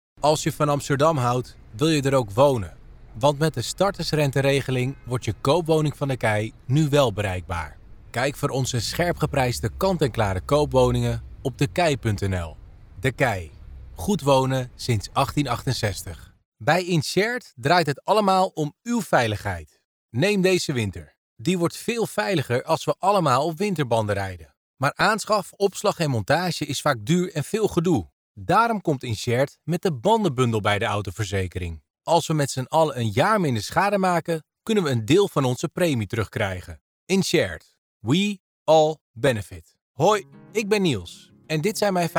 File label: Commercials